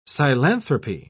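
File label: "psilanthropy", British English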